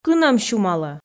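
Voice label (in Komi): кынӧм сюмалӧ, Komi, female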